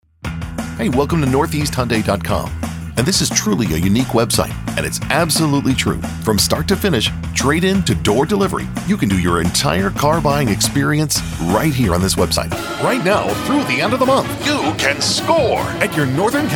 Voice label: Friendly Read; Jakala Creative Audio